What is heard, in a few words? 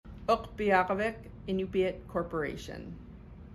"Ukpeaġvik Iñupiat", Iñupiaq, Utqiaġvik; (ook-pee-arg-vik in-yoop-yat); Ukpeaġvik Iñupiat Corp.